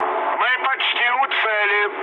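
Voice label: near waypoint, mood med, Russian; War Thunder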